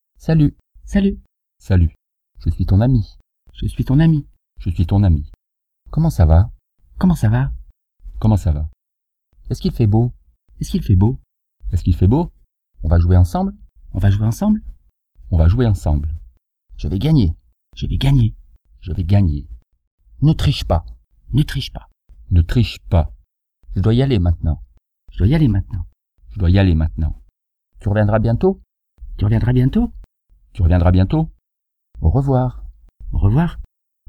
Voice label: fr man